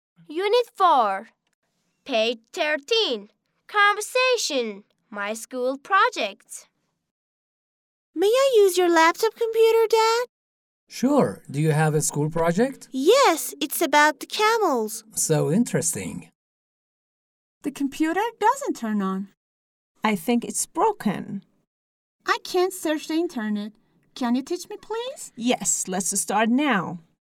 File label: conversation